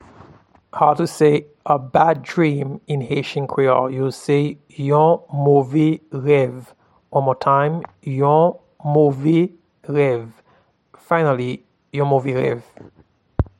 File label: A bad dream in Haitian Creole How to pronounce Yon move rev in Haitian Creole